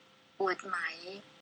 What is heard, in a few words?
hurt speak thai